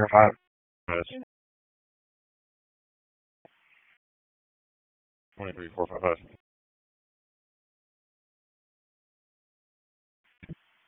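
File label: Raleigh Police Northeast District Dispatch, p25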